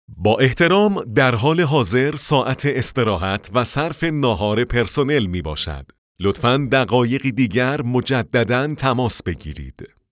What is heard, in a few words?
men